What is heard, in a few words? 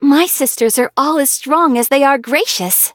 loading, en; cookie run